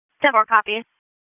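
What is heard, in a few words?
Dispatch, p25; WSO DISP 1; wake p25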